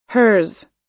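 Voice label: "hers", US English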